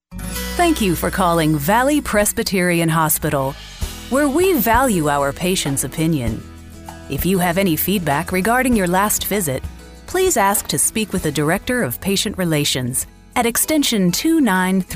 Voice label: female; TELEPHONE VOICE OVER TALENT